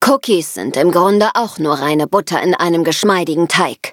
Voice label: loading, de; Cookie Run